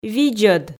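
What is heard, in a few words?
"видзӧд", Komi, female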